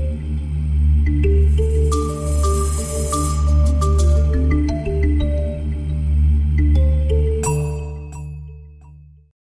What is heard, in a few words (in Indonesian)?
Bahasa Indonesia Voice Sample